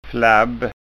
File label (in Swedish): "flabb", skanskaord